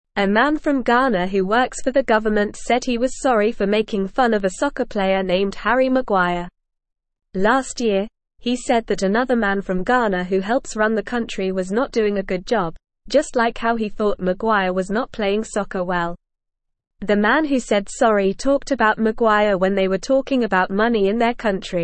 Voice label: English Lower Intermediate NORMAL Reading; Man Apologizes to Harry Maguire for Making Fun; English Newsroom

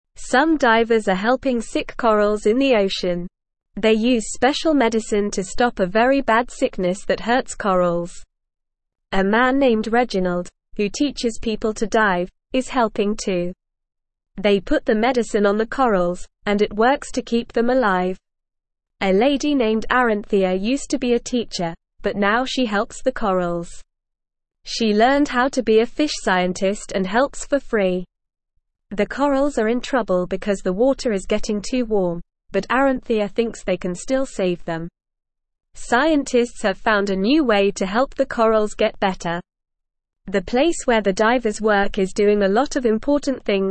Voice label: English Lower Intermediate NORMAL Reading; Helping Sick Sea Rocks Special Medicine for Coral; English Newsroom

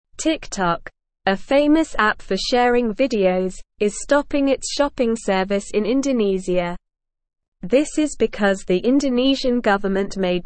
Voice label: English Lower Intermediate SLOW Reading; TikTok stops shopping in Indonesia to follow rules; English Newsroom

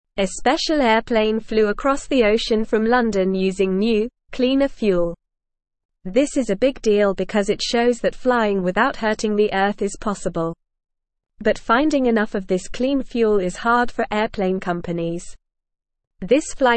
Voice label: English Lower Intermediate NORMAL Reading; Clean Fuel for Airplanes Flying Better for Earth

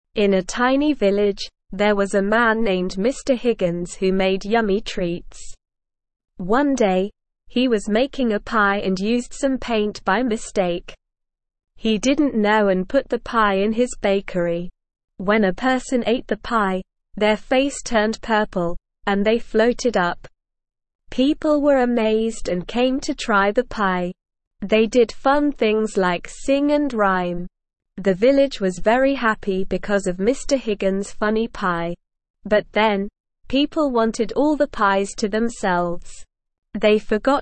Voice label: ESL Short Stories for Kids Beginner SLOW Reading; The Peculiar Purple Pie

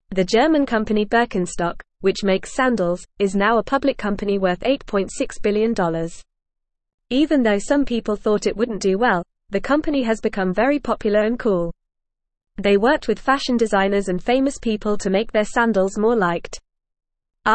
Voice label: English Newsroom Beginner FAST Reading; Birkenstock A Popular Sandal Company Goes Public

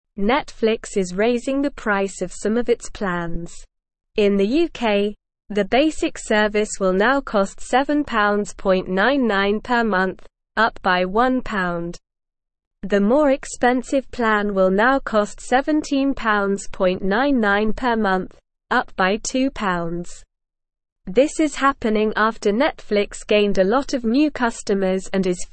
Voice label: English Lower Intermediate SLOW Reading; Netflix Makes Plans More Expensive Wants More Money; English Newsroom

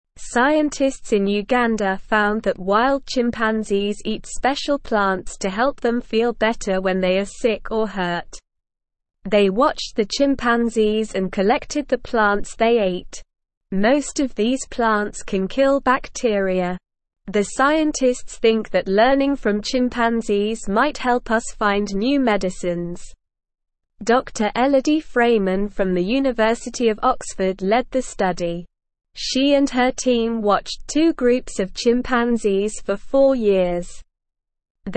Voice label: English SLOW Reading

